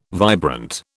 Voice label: "vibrant", British

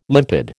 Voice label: "limpid", American

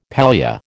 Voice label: "pallia", American

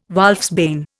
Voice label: "wolfsbane", Indian

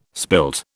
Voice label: "spilt", British

